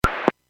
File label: Airports 121.5 Emergency, Radio